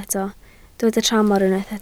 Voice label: "tramor", Welsh